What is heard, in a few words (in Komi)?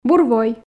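"бур вой", Komi, female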